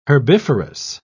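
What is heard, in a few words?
"herbiferous", British English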